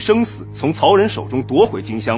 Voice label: chinese male vox; SPOKEN WORD; kongming lu su